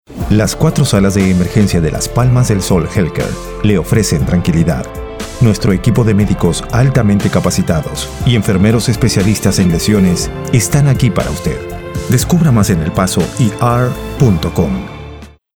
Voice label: Spanish

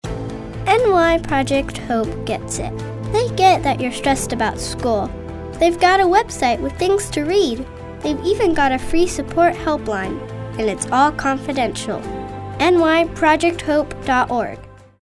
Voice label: inspirational

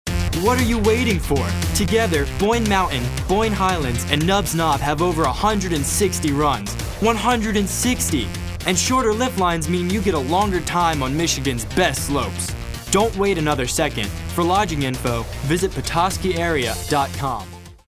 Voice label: high energy

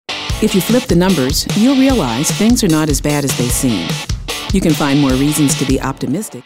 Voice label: upbeat

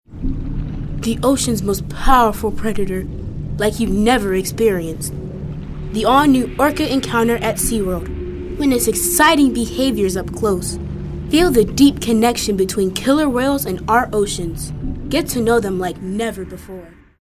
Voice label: ominous

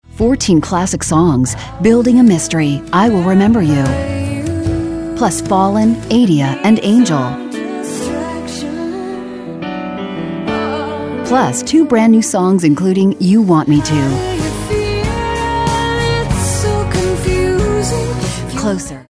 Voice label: Smooth

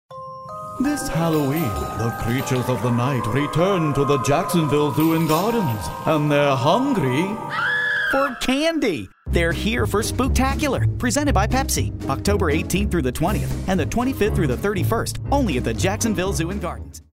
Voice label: character

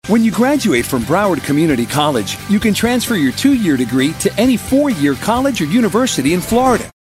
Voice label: real youthful

